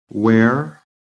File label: "WEAR", PHONETIC